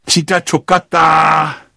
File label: jeers; sniper